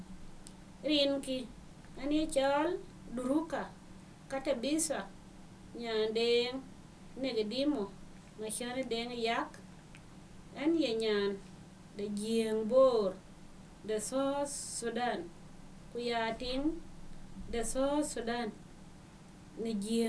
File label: Narrator, dinka